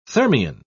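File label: "thermion", British English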